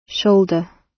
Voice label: "shoulder", gb

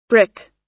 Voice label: "brick" US

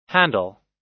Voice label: "handle", us

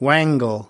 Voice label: "wangle", us

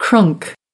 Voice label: "crunk", us

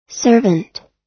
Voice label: "servant", us

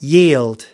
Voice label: "yield", us

